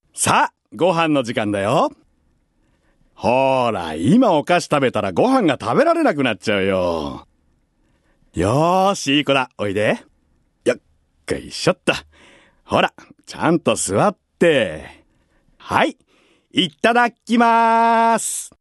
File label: male voice